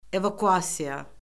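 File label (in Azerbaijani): "evakuasiya", Azerbaijani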